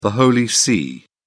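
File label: "the holy see", British English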